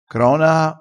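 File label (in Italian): "cronaa", dialettale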